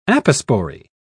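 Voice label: "apospory", British English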